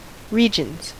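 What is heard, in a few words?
"regions", American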